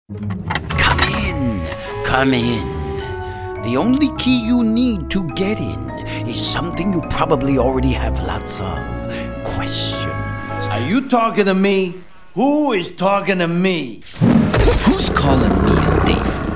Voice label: character